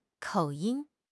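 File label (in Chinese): "口音", Chinese; HSK; HSK6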